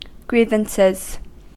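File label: "grievances", US English; pronunciations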